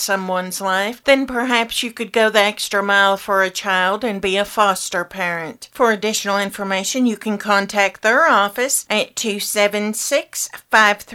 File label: Local Announcements